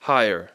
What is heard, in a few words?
"hire", English